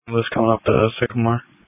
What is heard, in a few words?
Police Tactical Encrypted